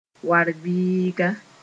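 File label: "war-biiga", Mooré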